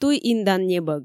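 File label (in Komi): туй индан небӧг, Komi, female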